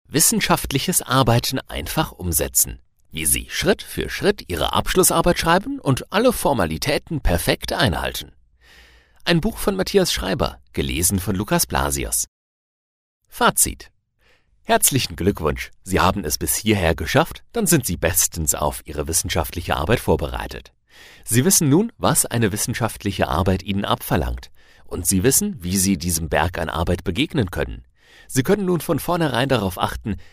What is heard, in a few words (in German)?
Hoerbuch Hoerspiel